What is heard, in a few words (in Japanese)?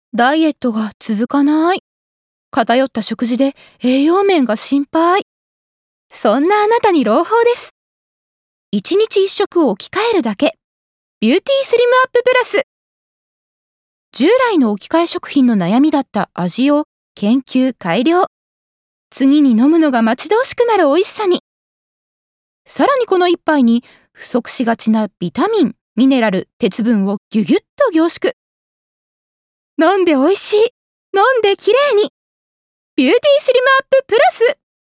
健康食品CM